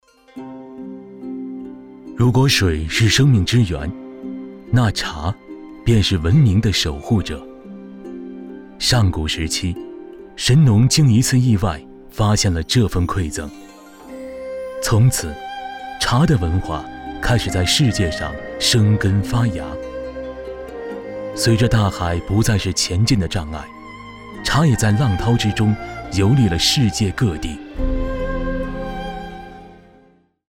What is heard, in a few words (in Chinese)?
C男, 纪录片